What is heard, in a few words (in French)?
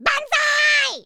kamikaze, French speech